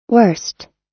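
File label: "worst", US English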